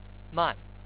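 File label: "man4", Chinese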